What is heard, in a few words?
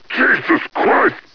scared; hunter